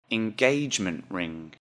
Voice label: "engagement ring", English; Jewelry and Cosmetics